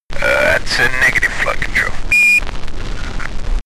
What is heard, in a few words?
astronaut chatter